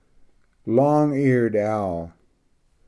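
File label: "long eared owl", English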